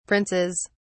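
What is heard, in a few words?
"princes", British English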